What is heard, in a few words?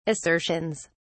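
"assertions", British English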